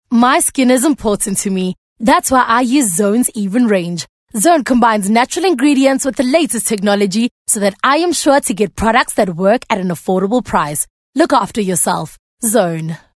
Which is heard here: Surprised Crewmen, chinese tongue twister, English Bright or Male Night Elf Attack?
English Bright